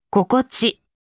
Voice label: "心地", Japanese

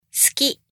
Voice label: "好き", Japanese

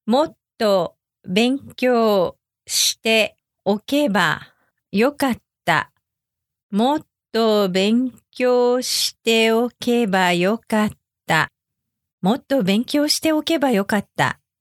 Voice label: もっと勉強しておけばよかった slow to normal speed

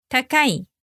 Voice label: "高たかい", Japanese

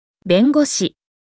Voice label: "弁護士", Japanese

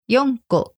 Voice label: "yonko", Japanese

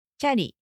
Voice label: "チャリ", Japanese

